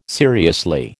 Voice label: "seriously", American